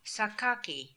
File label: "Sakaki", pronounciations; Fourth Way; Beelzebub